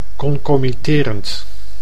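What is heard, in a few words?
"concomiterend", Dutch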